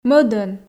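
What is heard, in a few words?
"мӧдӧн", Komi, female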